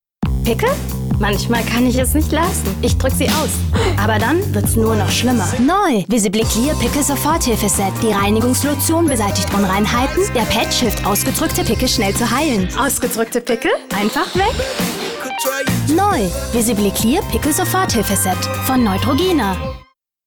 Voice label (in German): Werbespot; Neutrogena